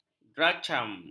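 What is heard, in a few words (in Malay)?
"drachm", Malay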